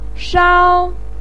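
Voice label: "shao", Chinese pinyin, first tone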